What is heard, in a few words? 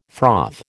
"froth", American